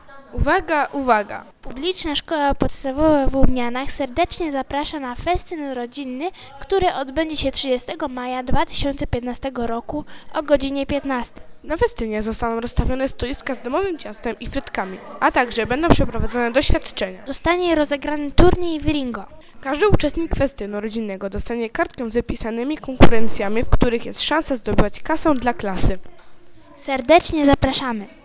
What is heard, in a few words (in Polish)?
spot reklamujący festyn